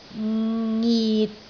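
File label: "ngit6", Cantonese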